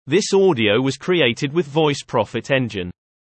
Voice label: English UK Male